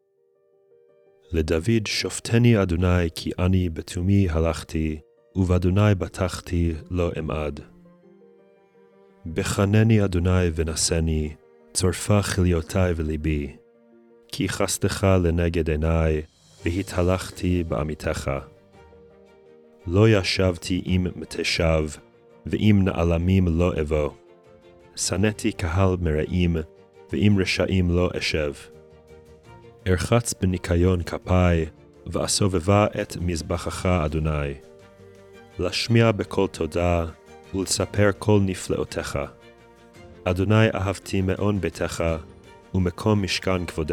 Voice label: poetry and prayer psalm hebrew